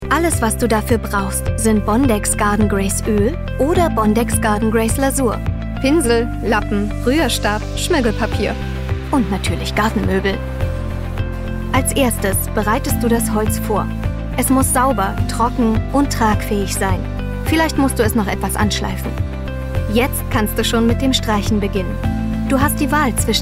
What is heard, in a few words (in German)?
Tutorial Möbellasur; LOFT VOICES